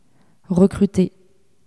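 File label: "recruter", French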